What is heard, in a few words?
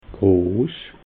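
"gross", Alsatian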